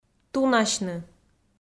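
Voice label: "тунасьны", Komi, female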